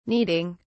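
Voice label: "needing", British English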